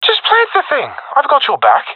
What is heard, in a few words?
friend plant bomb, professional epic; vo; Counter Strike Model Pack; CS:GO